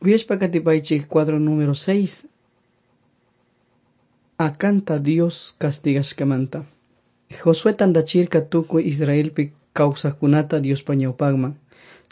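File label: quichua chimborazo, drama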